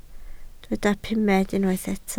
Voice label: "pumed", Welsh

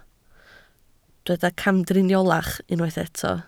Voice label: "camdriniolach", Welsh

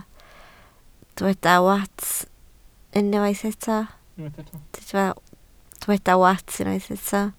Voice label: "wats", Welsh

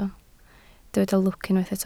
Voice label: "lwc", Welsh

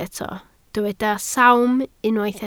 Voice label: "sawm", Welsh

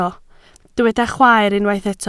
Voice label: "chwaer", Welsh

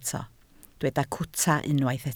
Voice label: "cwta", Welsh

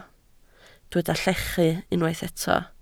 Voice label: "llechu", Welsh

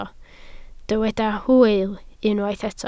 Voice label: "hwyl", Welsh

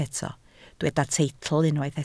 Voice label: "teitl", Welsh

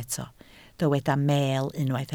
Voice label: "meel", Welsh

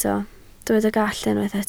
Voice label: "gallt", Welsh

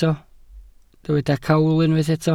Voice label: "cawl", Welsh

